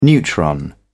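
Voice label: "neutron", British English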